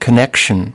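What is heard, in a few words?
"connection", English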